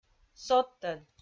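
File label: "содтӧд", Komi-Zyrian, female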